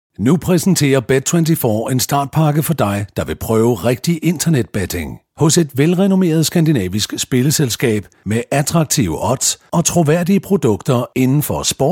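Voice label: Danish commercial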